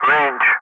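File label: "for", English; Ka-50; Wingman; Speech; DCS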